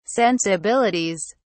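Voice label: "sensibilities", British English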